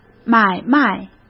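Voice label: "买卖", Mandarin Chinese; hsk; chinese-flashcards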